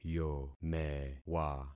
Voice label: "yomewa", concat syllables; Affixing experiment